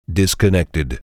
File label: disconnected, default male; TeamSpeak 3